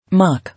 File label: "Mock", English